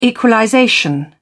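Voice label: "equalization", British English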